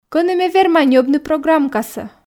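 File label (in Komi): кӧні ме верма ньӧбны программкасӧ, Komi, female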